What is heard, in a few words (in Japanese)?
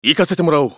ウィザード男･移動